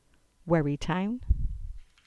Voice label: "Wherry Town" EN